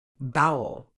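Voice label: "bowel", British English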